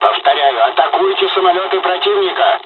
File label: dialogs russian; War Thunder